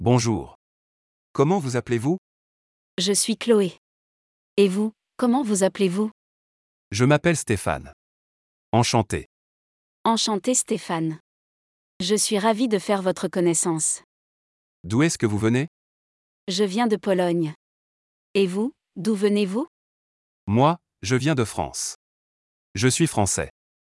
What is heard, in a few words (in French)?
Dialogue pour se présenter, A1 A2; phrases faciles